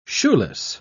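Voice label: "shoeless", British English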